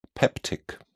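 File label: "peptic", British English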